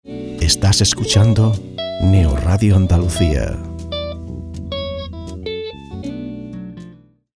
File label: indicativo radio spanish voiceover; Indicativo grabado para la emisora Neo Radio Andalucía